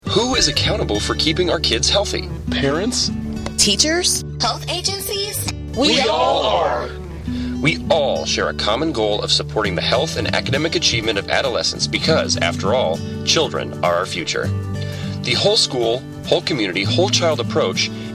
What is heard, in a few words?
radio psa